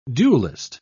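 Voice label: "duellist", British English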